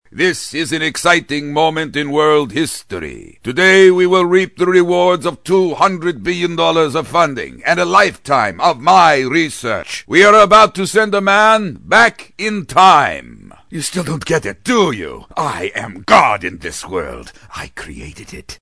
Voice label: Madman, Bold & Foreign; animation